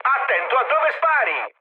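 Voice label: Italian player friendly fire mood med, speech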